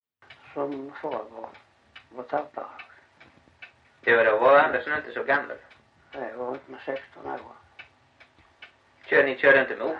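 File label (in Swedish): Backaryd Blekinge, Svenska sagor dialekter; Folkminnen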